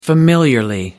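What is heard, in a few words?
"familiarly", English, British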